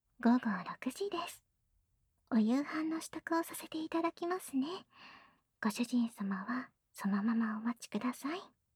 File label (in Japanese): 時報ボイス 午後6時です; 好感MAX従順奴隷メイド~甘トロ媚び媚び奉仕~